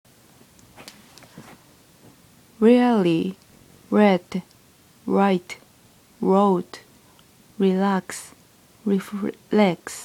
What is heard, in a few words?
hairdresser R after the course